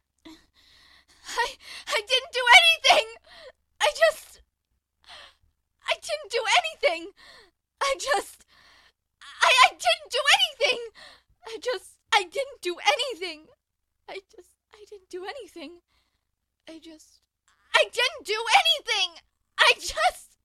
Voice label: Hysterical Crying Scared I Didn T Do Anything I Just